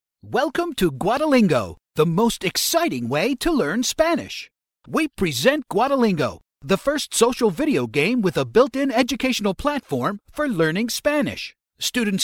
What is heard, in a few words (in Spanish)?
interpretación inglés USA